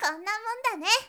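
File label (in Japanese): "konnamondane", Japanese girl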